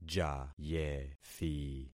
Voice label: "jayethi", concat syllables; Affixing experiment

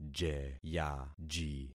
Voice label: "jeyaji", concat syllables; Affixing experiment

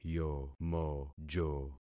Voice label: "yomojo", concat syllables; Affixing experiment

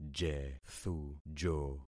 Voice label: "jethujo", concat syllables; Affixing experiment

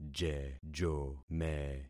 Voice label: "jejome", concat syllables; Affixing experiment

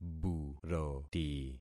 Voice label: "buroti", concat syllables; Affixing experiment

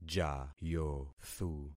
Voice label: "jayothu", concat syllables; Affixing experiment

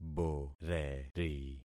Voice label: "boreri", concat syllables; Affixing experiment

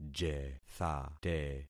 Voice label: "jethate", concat syllables; Affixing experiment

